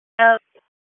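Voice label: Dispatch, p25; WSO DISP 1; wake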